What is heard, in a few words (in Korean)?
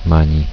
"Mani", Korean; A lot